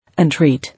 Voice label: "Entreat", English